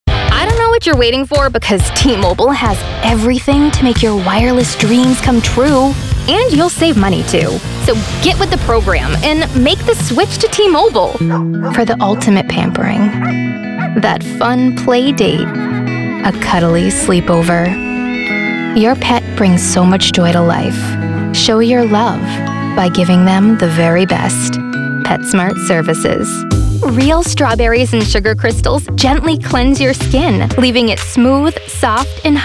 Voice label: Commercials